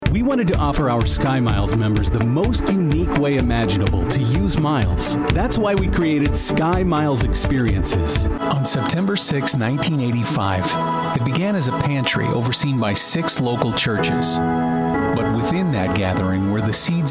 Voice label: Commercial